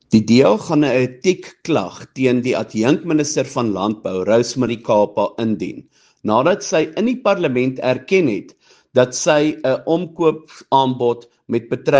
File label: Afrikaans